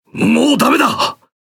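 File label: Speech Quick Commands Caramba, Japanese; World Of Warships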